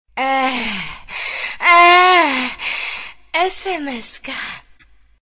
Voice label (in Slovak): sms hlásky cz